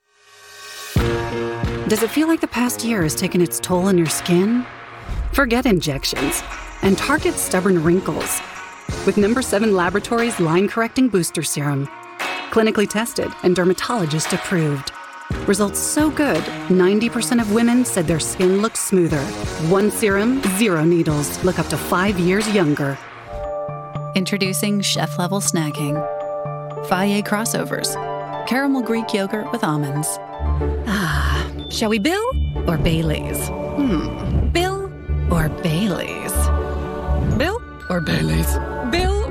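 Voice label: commercial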